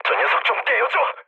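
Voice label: Korean aircraft damaged mood low; speech; War Thunder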